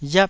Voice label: "riap4", taiwanese